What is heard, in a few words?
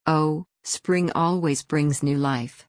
fast